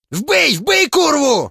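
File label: attack, Ukrainian; monsters; human; combat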